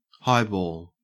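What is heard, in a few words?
"highball", Australian English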